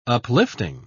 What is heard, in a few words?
"uplifting", pronounce